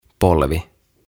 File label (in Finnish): "Polvi" Knee